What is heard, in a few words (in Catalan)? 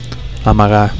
"amagar", Catalan